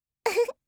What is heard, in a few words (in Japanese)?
"fufu", voice, reaction